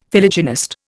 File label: "Philogynist", Indian; Dictionary